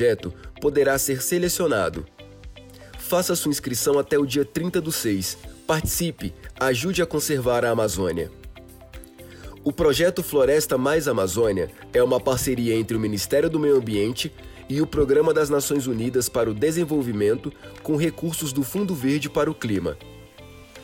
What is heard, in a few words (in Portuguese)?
Radio Release chamada publica; Conservacao